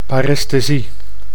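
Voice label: "paresthesie", Dutch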